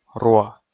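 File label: "руа", Komi, male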